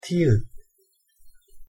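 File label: "ᄐ", Korean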